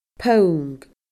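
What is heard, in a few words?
"pong", Scottish Gaelic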